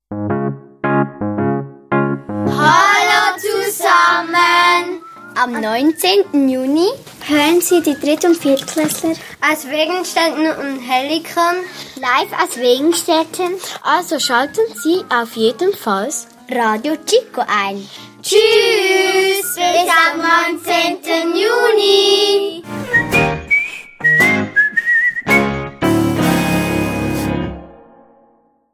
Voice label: Jingle; Hellikon